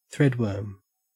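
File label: "threadworm", Australian English; pronunciations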